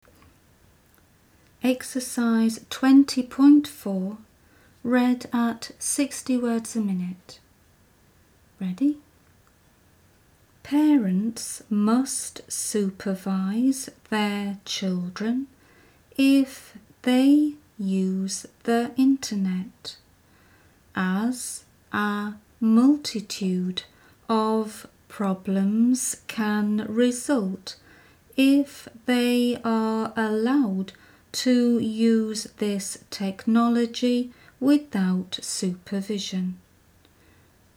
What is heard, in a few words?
60wpm; Teeline